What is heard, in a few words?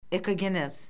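"ekogenez", Azerbaijani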